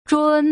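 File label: "zhun", Putonghua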